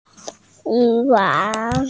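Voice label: "yu2wang3", Mandarin Chinese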